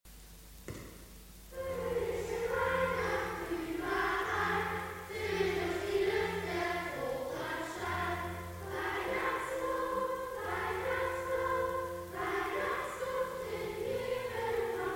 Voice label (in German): Mundart Gottesdienst